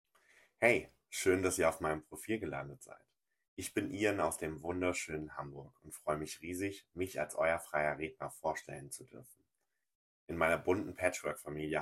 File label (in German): Stimmprobe, Trauredner, Emotional; Trauredner in Hamburg